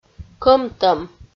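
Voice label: "кӧмтӧм", Komi-Zyrian, female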